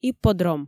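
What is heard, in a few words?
"ипподром", Komi, female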